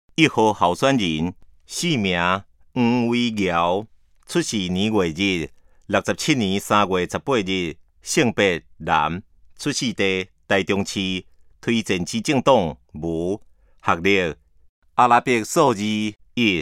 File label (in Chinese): 台語; 臺中市; 有聲公報; 市議員; 第05選區